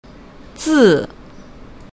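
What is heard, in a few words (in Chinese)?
"字", Chinese; 小学语文教学课件; 易语言; 中小学教学课件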